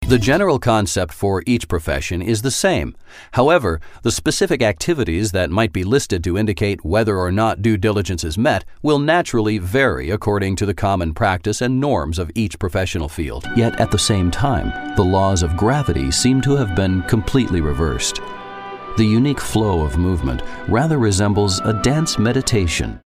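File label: English US male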